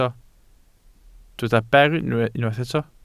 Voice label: "ber", Welsh

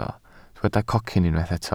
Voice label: "cocyn", welsh

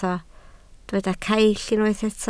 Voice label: "caill", Welsh

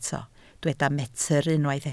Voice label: "metr", Welsh

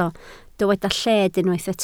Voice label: "lled", Welsh

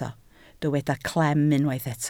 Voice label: "clem", Welsh

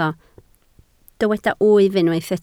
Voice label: "wyf", Welsh

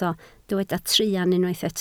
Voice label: "truan", Welsh

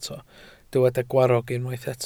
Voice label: "gwarrog", Welsh

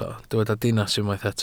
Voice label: "dinas", welsh

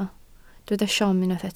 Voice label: "siom", Welsh

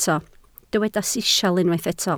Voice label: "sisial", Welsh

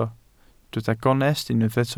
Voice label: "gonest", Welsh

